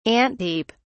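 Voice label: "ant heap", British English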